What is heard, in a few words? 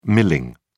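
"milling", British English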